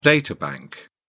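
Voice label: "data bank", British English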